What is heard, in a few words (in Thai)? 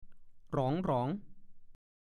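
"หรอง ๆ" ภาษาโคราช; เพิ่มหรือขึ้นทีละน้อย; กำลังพอเหมาะ พอดี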